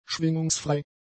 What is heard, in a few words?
"schwingungsfrei", German; adjectives